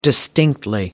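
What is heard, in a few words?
"distinctly", British English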